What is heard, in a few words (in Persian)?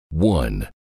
صدای شمارش یک تا ده انگلیسی مرد